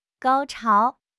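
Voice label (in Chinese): "高潮", Chinese; HSK6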